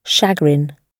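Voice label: "chagrin", British English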